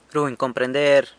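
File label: "runy comprender", San Lucas Quiavini Zapotec; understands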